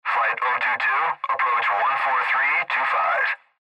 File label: Airtraffic Control Approach